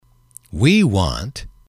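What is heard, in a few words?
we want, English, male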